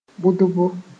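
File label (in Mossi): "bʋdbo", Mooré; sowing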